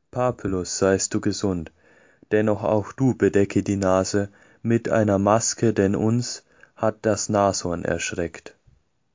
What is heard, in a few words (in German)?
epigramm deutsch